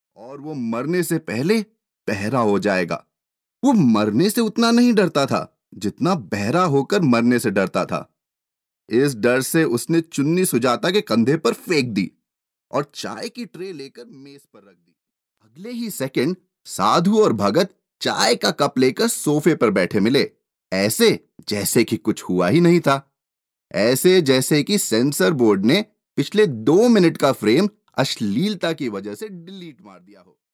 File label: male hindi voice over talent narrative